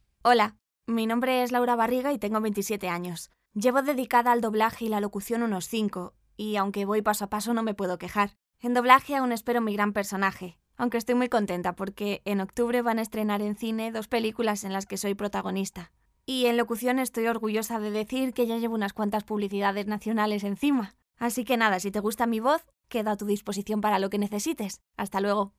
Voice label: Natural

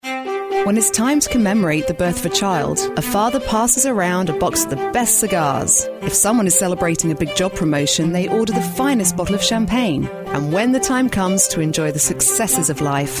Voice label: Mercedes Commercial

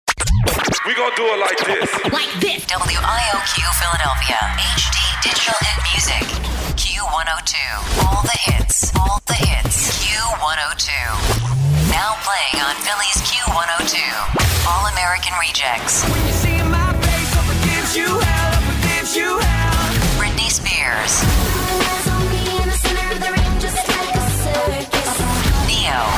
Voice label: Radio Imaging CHR

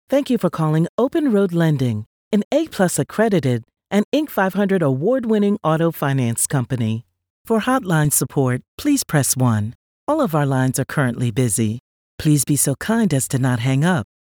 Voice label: IVR Sample

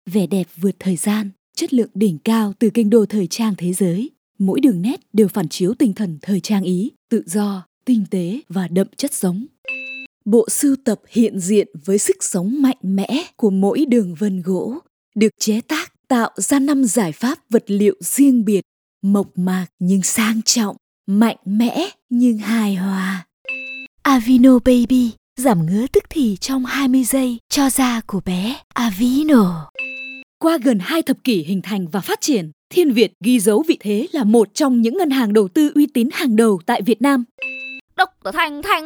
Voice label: Vietnamese Northern samples

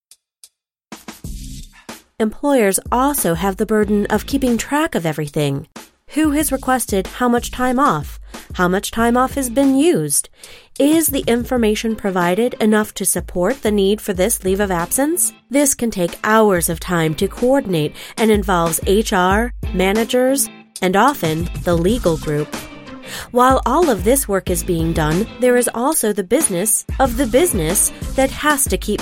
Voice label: Narration

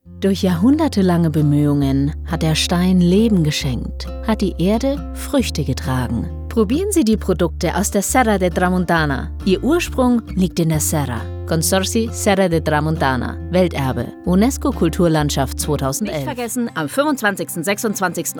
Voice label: German

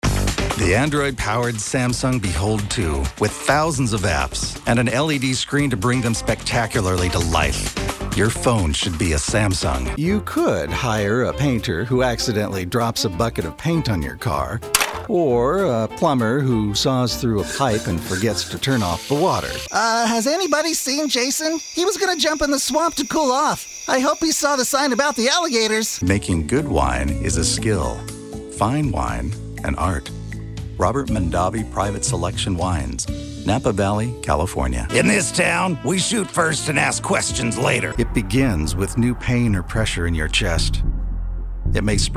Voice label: Commercial Voice Over

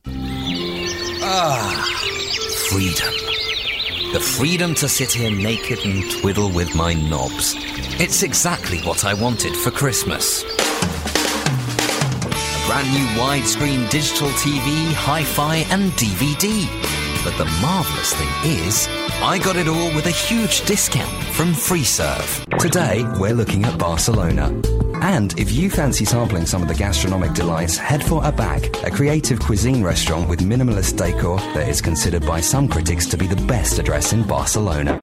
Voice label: Natural

